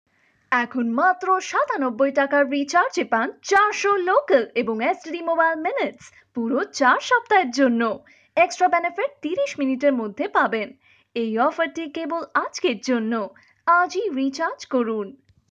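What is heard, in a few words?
prompt bengali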